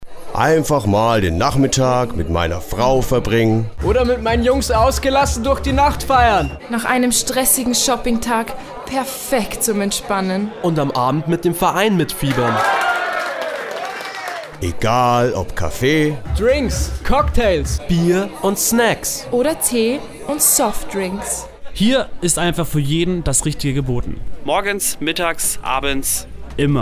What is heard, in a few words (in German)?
Werbung